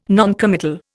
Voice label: "noncommittal", Indian

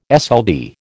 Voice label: "SLD", American

